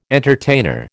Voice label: "entertainer", American